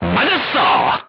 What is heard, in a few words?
"direct hit", korean crew tanks; War Thunder